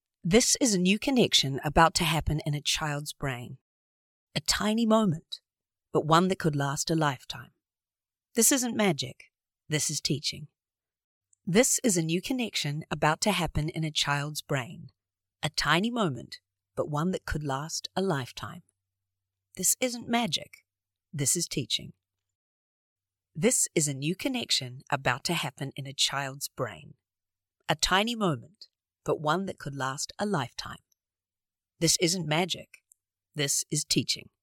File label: Narration, en-US